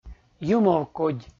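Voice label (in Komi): "юмовкодь" Komi, male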